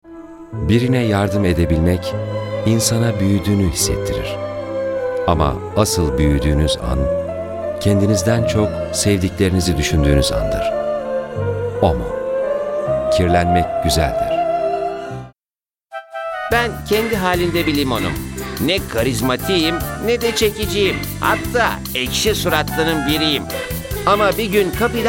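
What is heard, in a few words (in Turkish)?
turkish male commercial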